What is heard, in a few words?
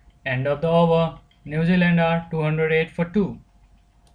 english line